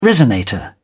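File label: "resonator", British English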